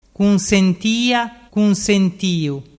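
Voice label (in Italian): "cunsentiatiu", dialect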